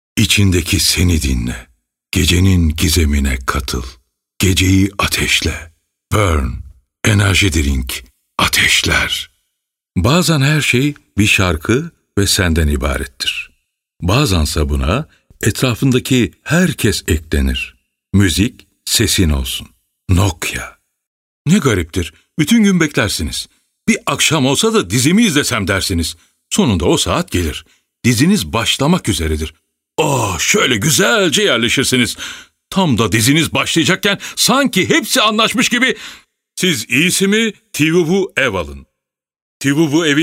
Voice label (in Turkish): erkek